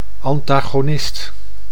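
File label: "antagonist", Dutch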